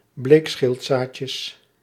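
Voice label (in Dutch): "bleek schildzaadjes", Dutch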